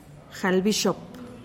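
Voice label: "jalbixop", Teotitlán del Valle Zapotec; twenty-six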